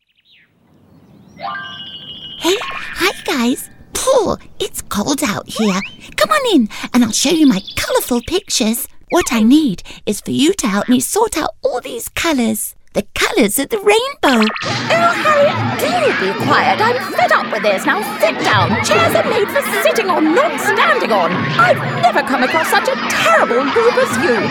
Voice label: Animation